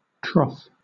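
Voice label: "troth", British English; pronunciations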